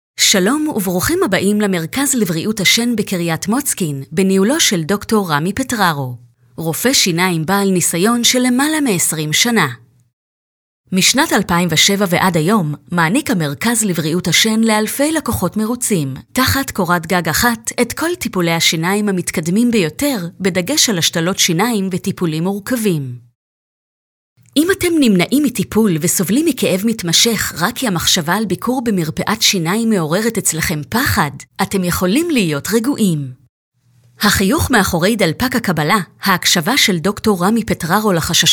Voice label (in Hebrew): קריינות הסבר; לסרטון הסברתי; קריינות היא קריטית להצלחתו של סרט התדמית